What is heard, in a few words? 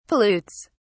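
"pollutes", British English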